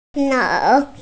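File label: "leng3", Mandarin Chinese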